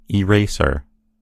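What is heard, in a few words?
"eraser", American English; gcompris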